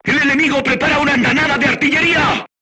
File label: voice message art shooting warning, crew tanks spanish